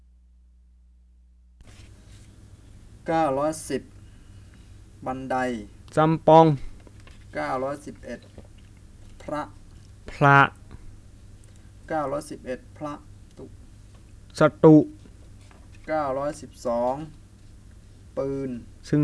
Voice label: Bisu or Misu Wordlist

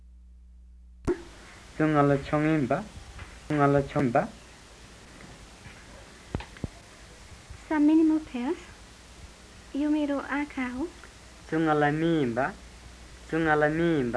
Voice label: Western Tamang

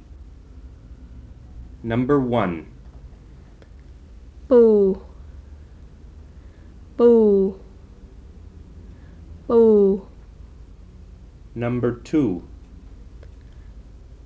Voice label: Malay

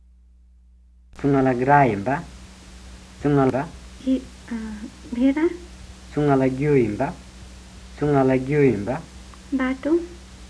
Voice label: Western Tamang

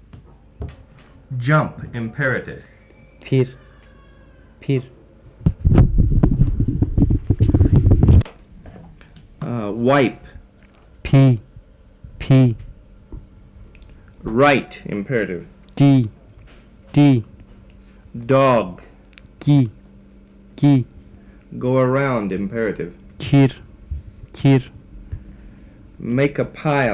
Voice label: Jirel Cons Vowels

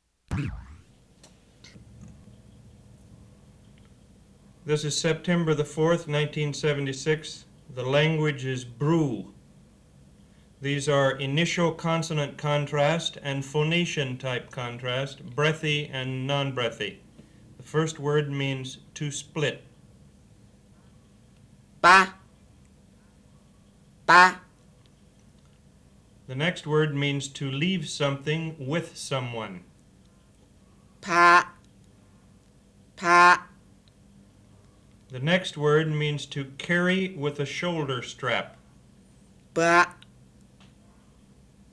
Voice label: Bru contrasts

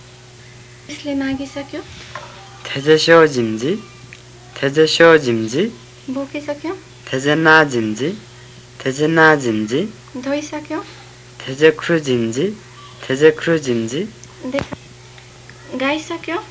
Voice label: Western Tamang

